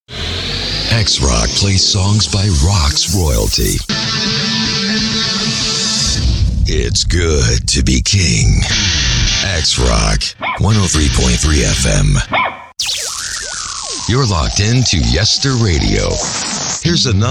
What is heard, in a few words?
IMAGING